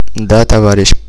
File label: yes sir, rus